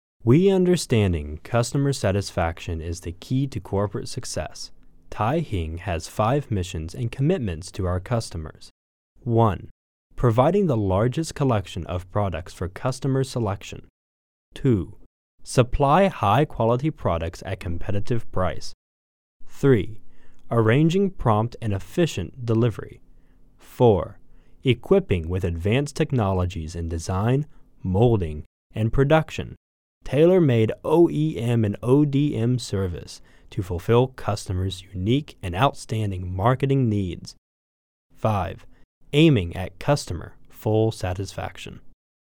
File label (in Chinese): 外籍, 宣传配音; 泰兴